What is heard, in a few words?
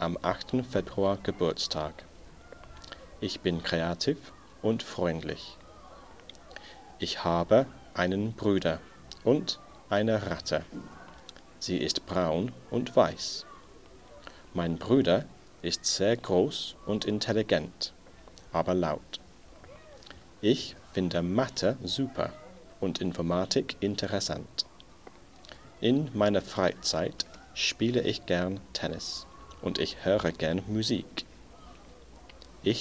ich kann deutsch text for pronunciation